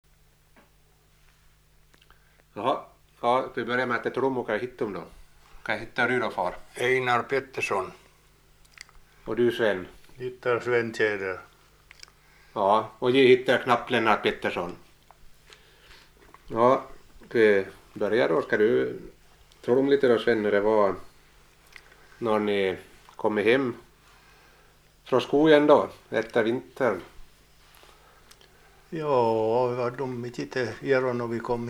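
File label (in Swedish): Boda Dalarna, dialekter; Folkminnen; Svenska sagor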